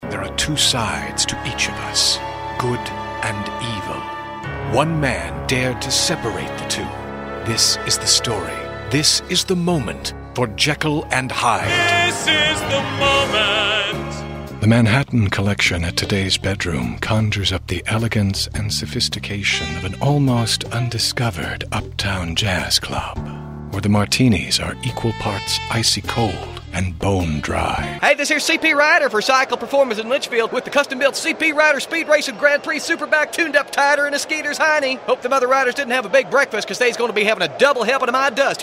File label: Commercial